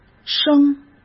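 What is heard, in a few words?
"生", Mandarin Chinese; hsk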